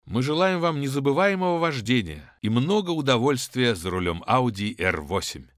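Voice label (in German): russisch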